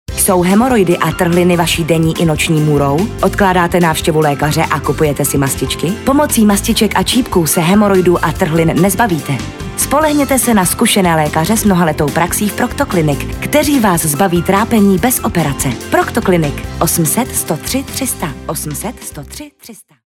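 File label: Czech, female, commercial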